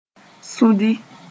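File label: "sũdi", Mooré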